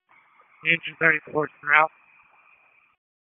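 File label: FIRE Operations, p25; FIRE OPS 4; wake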